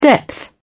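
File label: "depth", English, British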